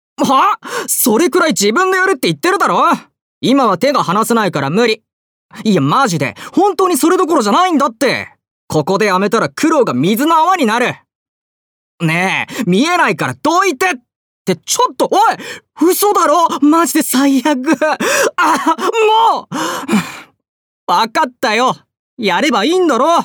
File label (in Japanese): アニメ